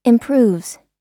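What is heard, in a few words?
"improves", American English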